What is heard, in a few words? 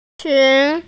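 "qun2", Mandarin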